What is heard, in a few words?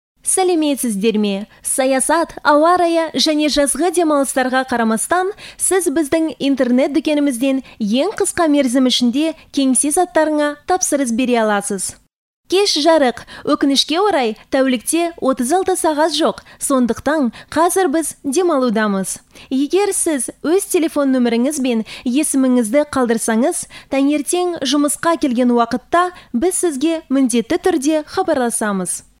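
female Kazakh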